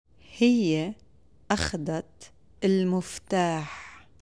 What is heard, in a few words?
Past tense Arabic